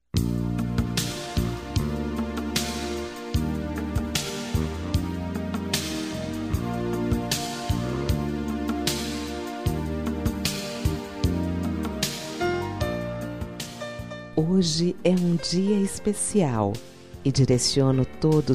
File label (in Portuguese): Dia das Maes, F